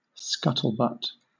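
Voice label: "scuttlebutt" English, British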